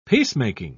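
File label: "peacemaking", British English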